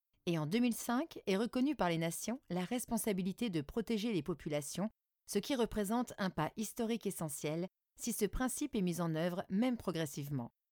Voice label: e learning démocratie; CNFPT

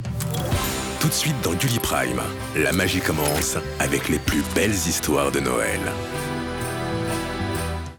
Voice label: Bande annonce Noel FR; Gulli Prime Telefilms

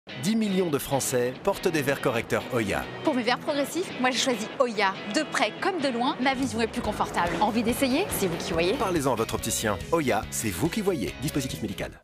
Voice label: H FR PUB; Hoya

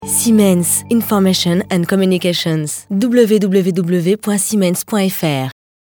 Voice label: signature pub radio; SIMENS

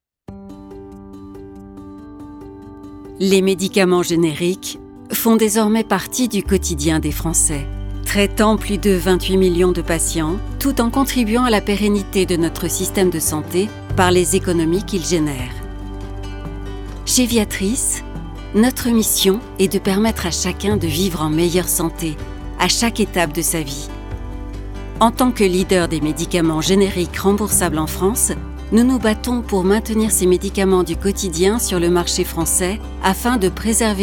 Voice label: Film Institutionnel; voix-off; VIATRIS

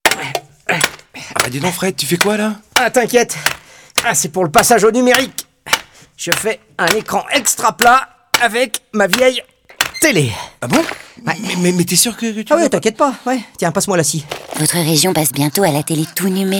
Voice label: radio; DARTY

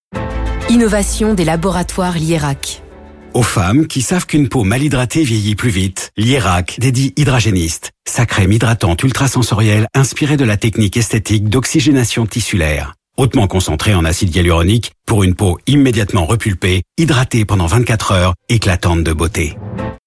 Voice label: Pub Radio; Lierac

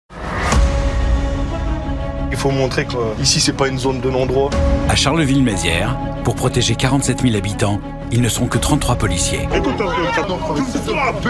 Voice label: BA Journalistique Divertissement Sport; RMC Decouverte

